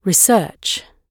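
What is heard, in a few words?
"research", British English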